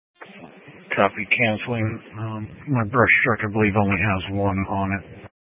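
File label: Incident Tac 1, Scanner audio recording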